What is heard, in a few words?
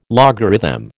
"logarithm", American